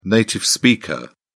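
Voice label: "native speaker", British English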